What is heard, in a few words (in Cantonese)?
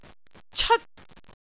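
"ceot1", Cantonese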